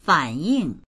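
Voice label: "fan3ying4", Mandarin Chinese; 反映; fǎnyìng; 反映（する）、報告（する）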